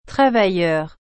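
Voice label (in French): "travailleur", French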